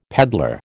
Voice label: "peddler", American

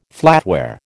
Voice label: "flatware", American

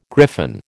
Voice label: "griffon", American

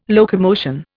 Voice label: "locomotion", Indian

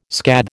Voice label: "scathe", American

